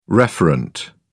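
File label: "referent", English, uk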